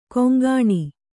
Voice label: "ಕೊಂಕಣಿ", Kannada